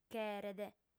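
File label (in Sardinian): "cheret", female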